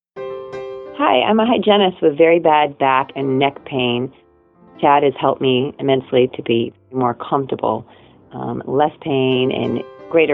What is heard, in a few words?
Testimonial; Bradbury Massage